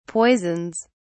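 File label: "poisons", British English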